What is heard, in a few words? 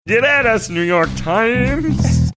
saying get at us new york times funny